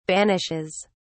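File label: "banishes", British English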